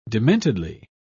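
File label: "dementedly", British English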